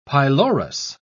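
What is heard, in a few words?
"pylorus", British English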